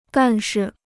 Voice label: "干事", Chinese

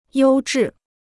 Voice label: "优质", Chinese

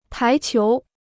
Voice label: "排球", Chinese

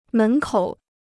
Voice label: "门口", Chinese

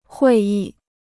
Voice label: "会议", Chinese

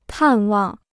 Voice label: "探望", Chinese

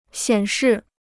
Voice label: "显示", Chinese

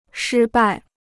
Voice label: "失败", Chinese; 失败 (shī bài); to be defeated; to lose

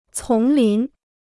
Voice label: "丛林", Chinese

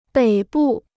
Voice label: "北部", Chinese

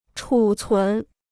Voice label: "储存", Chinese

